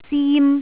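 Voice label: "zim1", Cantonese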